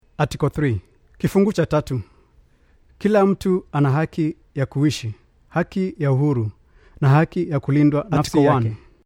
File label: Swahili